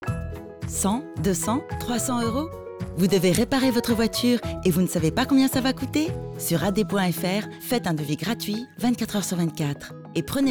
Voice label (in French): PUB AD, voix off